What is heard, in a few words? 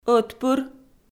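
"ӧтпыр", Komi, female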